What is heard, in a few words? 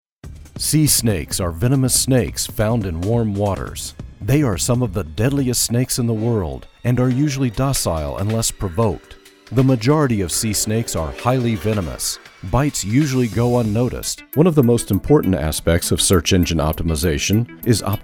male Narration, english